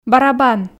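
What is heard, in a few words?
"барабан", Komi, female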